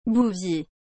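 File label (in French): "bouvier", French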